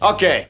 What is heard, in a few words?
"okay", expletives